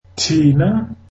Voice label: zulu us

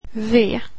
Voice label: "v", swedish alphabet

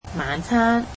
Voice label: cantonese dinner

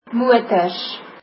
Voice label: cars, afrikaans

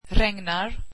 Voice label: swedish rainy

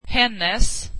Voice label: swedish her

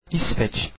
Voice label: turkish Swedish

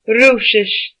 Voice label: "Russian", Irish

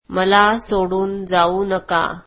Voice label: marathi dont leave me

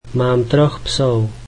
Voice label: slovak I have three dogs

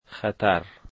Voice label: danger, Dari

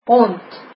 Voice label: dog, afrikaans

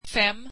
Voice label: swedish five

